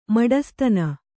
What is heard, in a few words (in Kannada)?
"ಮಡಸ್ತನ", Kannada